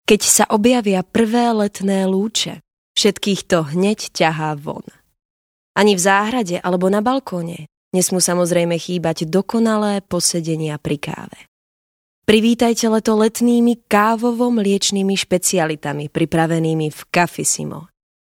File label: locutora eslovaca slovak voiceover; locutora de Eslovaquia